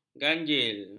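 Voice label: "ganjil", Malay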